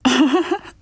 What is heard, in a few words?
Emote Female Happy; Emotes